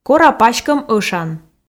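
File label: "кора паськӧм ӧшан", Komi, female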